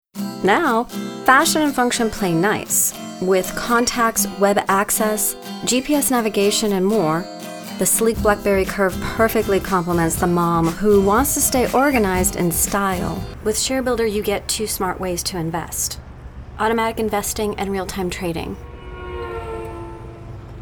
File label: Commercial Demo, Voiceover